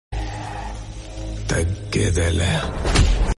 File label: Telugu Dialogue SMS Ringtone; Pushpa